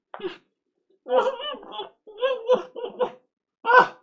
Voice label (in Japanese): ダメージボイス; ポーズリクエストに応える回